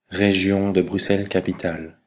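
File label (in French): "Région de Bruxelles-Capitale", French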